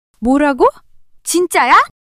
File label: surprise, monologue